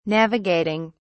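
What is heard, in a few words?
"navigating", British English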